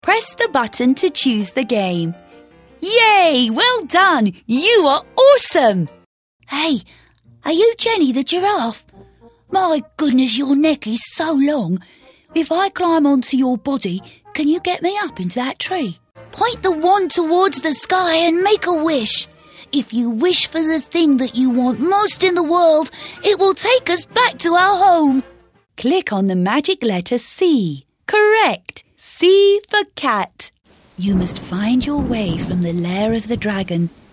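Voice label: British, Toys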